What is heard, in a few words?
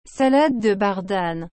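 "salade de bardane", French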